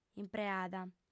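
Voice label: "impreada", female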